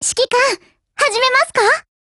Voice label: HELLO JP; GF Alarm; M99